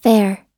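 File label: "fair", American English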